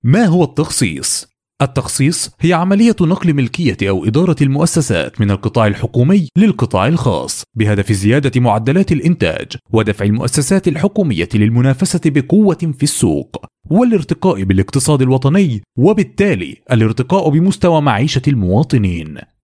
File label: Arabic Male